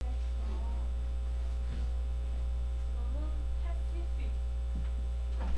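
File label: "neck", spoken word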